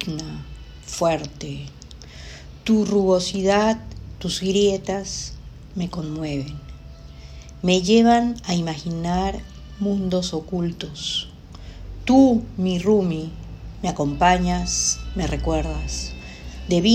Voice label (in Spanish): Poema Piedra sagrada